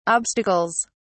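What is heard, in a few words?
"obstacles", British English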